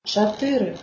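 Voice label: "4", Belarusian, female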